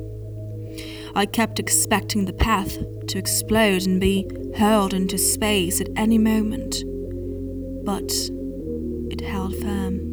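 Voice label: UK English Poem with music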